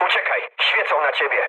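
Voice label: searchlite, mood low, Polish speech; War Thunder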